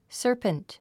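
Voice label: "serpent", English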